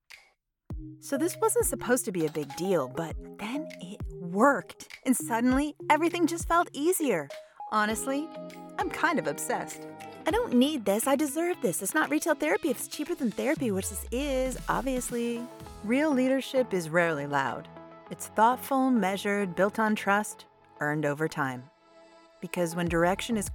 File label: Voice centric Commercial; Demo